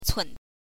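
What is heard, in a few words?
"cun", Mandarin Chinese, neutral tone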